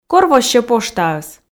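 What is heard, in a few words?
"кор воссьӧ поштаыс", Komi, female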